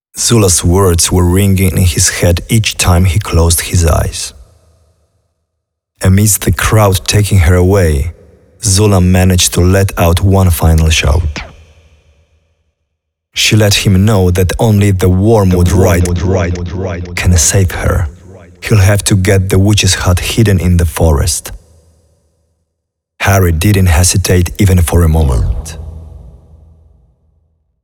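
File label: Voices VO English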